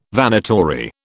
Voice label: "Vanitory", British

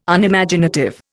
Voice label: "Unimaginative", Indian

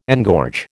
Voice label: "engorge", American

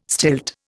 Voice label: "stilt", Indian

